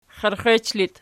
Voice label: xierxech loc, archi